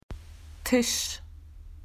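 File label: "table", Australian English; PictureDictionary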